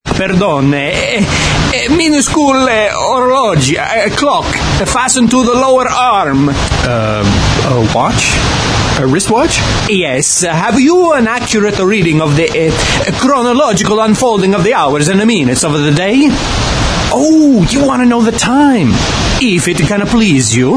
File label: Voice Demo; men